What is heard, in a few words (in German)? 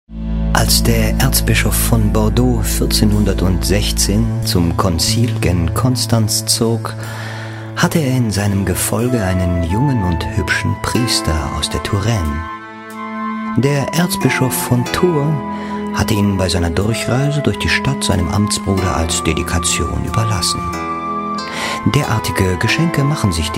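acting, Sanft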